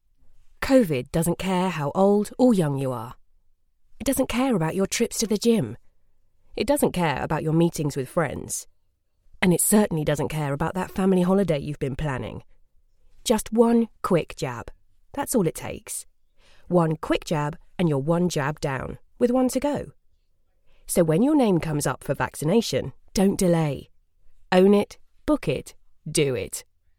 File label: female commercial voiceover approachable natural